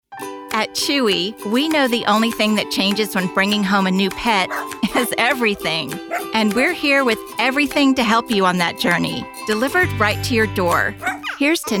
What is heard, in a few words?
commercial